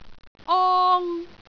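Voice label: "ong1", Cantonese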